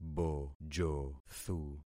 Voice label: "bojothu", concat syllables; Affixing experiment